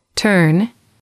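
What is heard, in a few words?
"turn", English, female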